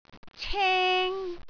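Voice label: "ceng1", Cantonese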